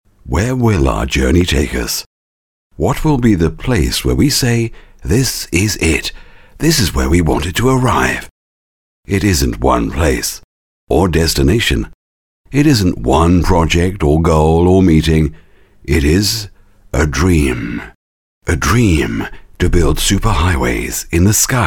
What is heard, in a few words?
whispered confidence style